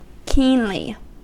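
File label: "keenly", American English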